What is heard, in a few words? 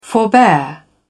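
"forbear", en, uk British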